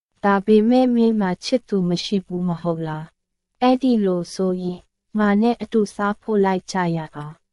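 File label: Myanmar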